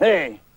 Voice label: "hey", voice

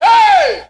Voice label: "hey", voice

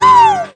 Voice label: "ooh" female

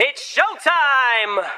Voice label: it's showtime male; Voice; introductions

